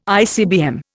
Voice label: "ICBM", Indian

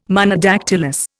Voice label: "Monodactylous", Indian

